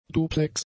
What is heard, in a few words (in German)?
"duplex", German; adjectives